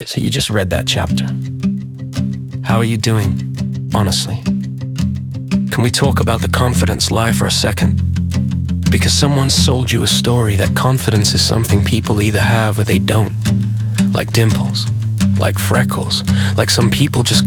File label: Male; chapter 6 audio